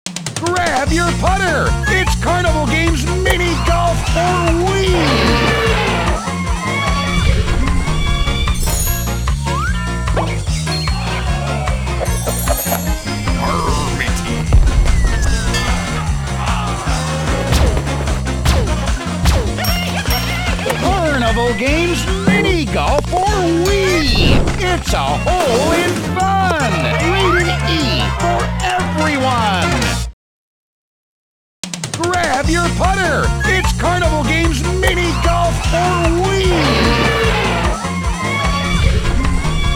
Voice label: Spanish - trailer audio, minigolf trailer